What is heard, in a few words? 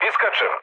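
Serbian bailout mood low, speech; War Thunder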